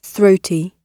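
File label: "throaty" British English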